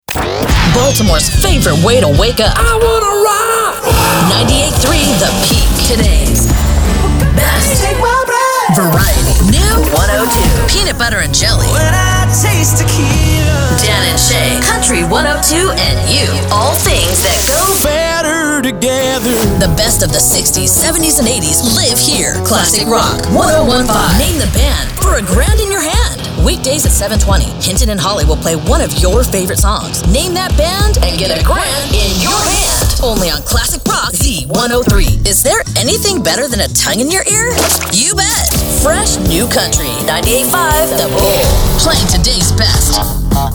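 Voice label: voice over Radio Imaging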